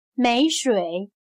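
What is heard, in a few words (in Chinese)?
"没水", Chinese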